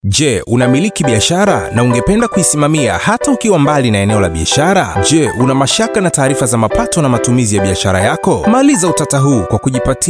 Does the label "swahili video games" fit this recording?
Yes